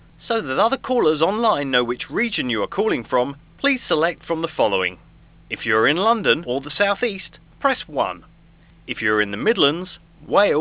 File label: Voice Over; 4D Interactive